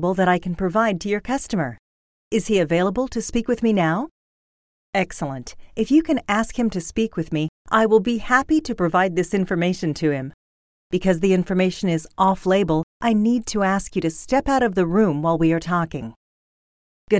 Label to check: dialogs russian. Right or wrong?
Wrong